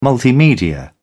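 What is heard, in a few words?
"multimedia", English, British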